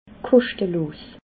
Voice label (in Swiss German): "koschtelos", alsace